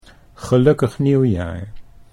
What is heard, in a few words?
"gelukkig nieuwjaar", Dutch